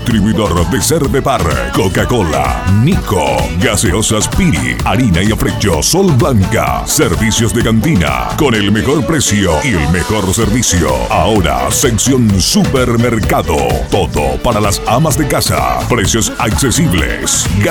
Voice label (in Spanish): COMERCIAL; MENDEZ 2012